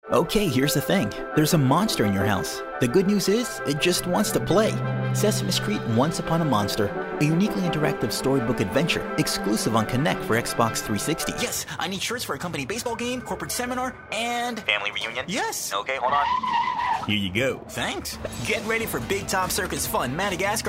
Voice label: Commerical